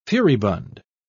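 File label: "furibund", British English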